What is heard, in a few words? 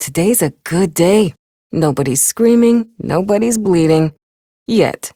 Ambient Barks, en; Warframe; Mall Romance; Lettie